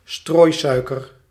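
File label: "strooisuiker", Dutch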